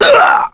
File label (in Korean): Vox Damage, kr; heroes; Baudouin; kingsraid